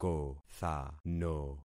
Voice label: "kothano", concat syllables; Affixing experiment